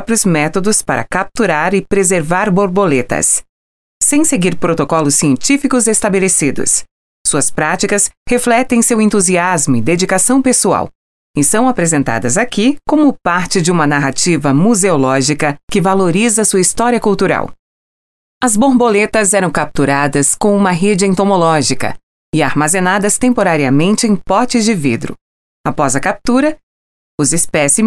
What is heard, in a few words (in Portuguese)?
audio guia painel metodo de trabalho voz feminina; exposicao casa das borboletas